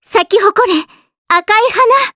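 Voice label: voice, Japanese; Kirze; kingsraid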